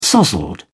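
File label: "sozzled", English, British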